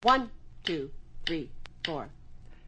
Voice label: one two three four, female; FEMALE MISC